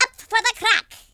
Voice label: "watch this", Irish; speech